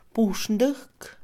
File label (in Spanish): cárcel, Mixe, Mujer; INALI